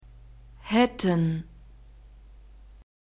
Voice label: "haetten", German